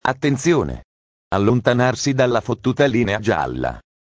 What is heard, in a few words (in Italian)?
annunciatore; trenitalia